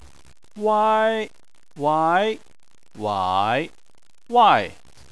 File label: "wai", chinese